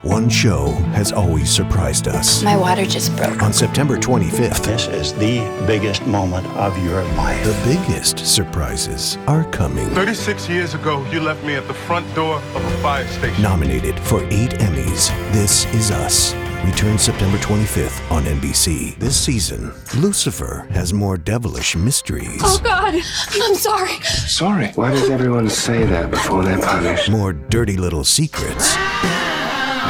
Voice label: TV Promo